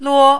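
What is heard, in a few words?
"luo", Mandarin, first tone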